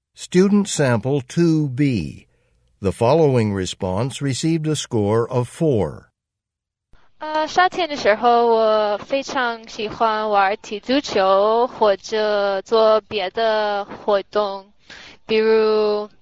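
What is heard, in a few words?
chinese conversation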